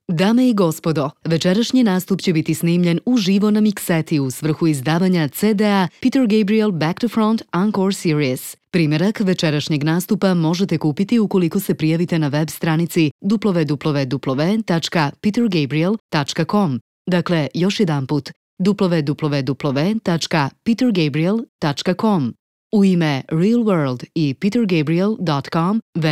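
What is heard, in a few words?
Serbian, concert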